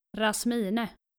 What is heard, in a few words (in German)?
"Rasmine", daenische maedchennamen